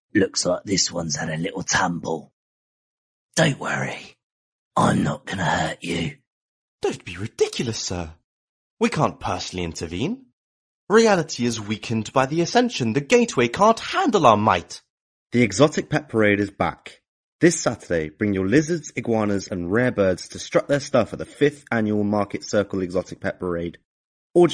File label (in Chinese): 英式男声 角色